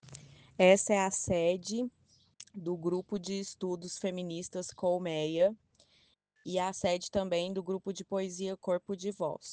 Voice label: Voice message